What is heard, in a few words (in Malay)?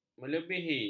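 "melebihi", Malay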